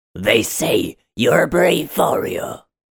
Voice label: Warrior mean